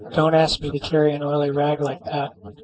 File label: speech est